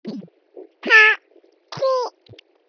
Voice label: synvoice